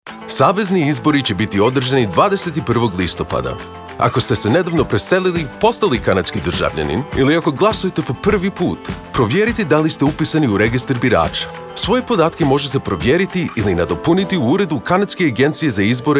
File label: Croatian, radio advert